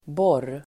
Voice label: "borr", Swedish